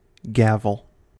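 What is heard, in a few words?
"gavel", American English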